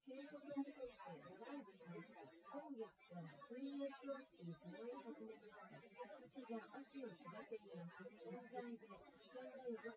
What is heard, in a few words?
female synth conv 130ms 1m; SiSEC08; SiSEC underdetermined